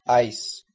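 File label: "ice", English word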